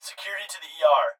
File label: Hospital Pa Voice; Blastwave